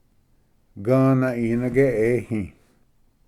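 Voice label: "gvna inage ehi", Cherokee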